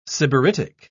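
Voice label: "sybaritic", English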